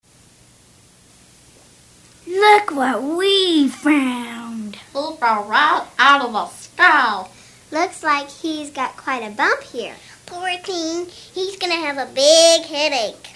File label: scene dialogue